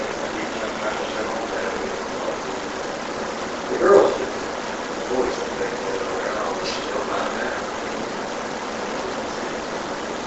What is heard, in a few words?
Unexplained Male Voice (Hey girls) Amplified